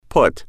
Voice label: put, English